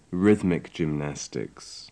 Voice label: rhythmic gymnastics, english